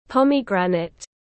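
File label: "Pomegranate", English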